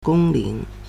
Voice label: "gong1 ling2", Chinese